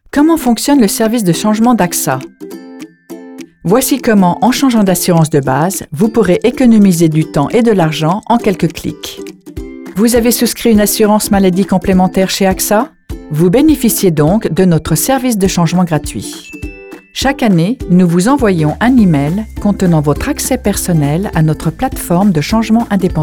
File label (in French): Extrait Corporate